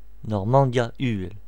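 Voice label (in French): "haute-normandie", voices, br, french region; france region; gcompris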